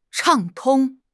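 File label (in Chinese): "畅通", Chinese; HSK6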